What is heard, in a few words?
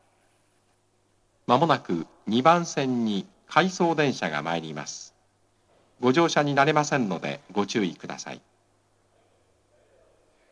not in service, station, tokyo metro